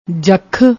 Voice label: "jakh", Punjabi